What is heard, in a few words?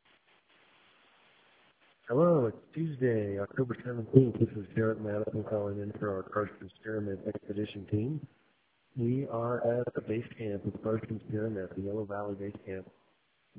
voicemail